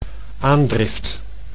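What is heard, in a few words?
"aandrift", Dutch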